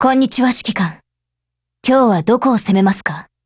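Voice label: HELLO Japanese, Voice; GF Alarm; BrenMKMod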